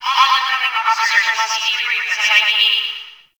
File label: Campsite Announcement; Warframe; Tau